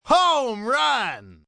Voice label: Home Run, announcer, male, baseball; Sports, umpire, coach

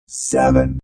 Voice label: Robot Voice "0"

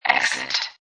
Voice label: Robot Voice "Exit"; Robot Sound Effects; Lynne Music

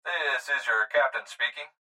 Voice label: Pilot Voice Clip, Pilot This Is Your Captain; Pa Announcement

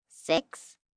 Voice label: Numbers "Six", 1 child, girl counting; Talking Sound Effects

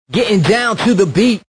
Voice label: Getn Down 2 Beat, Male; Radio Sound Effects; Sound Effects:Voice; VoiceBox

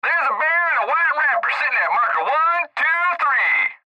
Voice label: Cb Trucker Radio; Cb Radio Male Theres A Bear In A White Wrapper Sittin At Marker One Two Three; Radio Voice; Blastwave

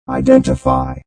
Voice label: Robot Voice "Identify"; Robot Sound Effects; Lynne Music

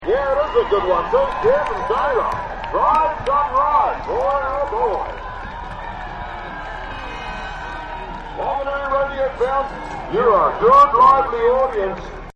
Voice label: Rodeo Announcer; Rodeos; Backgrounds

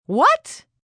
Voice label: "What" React, Reaction, negative, female; Exclamation

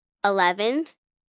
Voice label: Numbers "Eleven", 1 child, girl counting; Talking; Voice Box

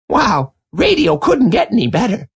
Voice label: Radio Couldnt Get, Male

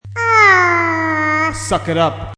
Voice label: "Suck It Up", Male; Attitude

